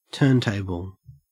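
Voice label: "turntable", Australian English; pronunciations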